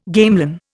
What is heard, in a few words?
"gamelan", Indian